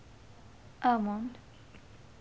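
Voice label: "almond", Japanese, female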